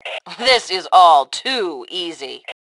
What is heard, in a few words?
Taunt Brag, Female; T2 Female 02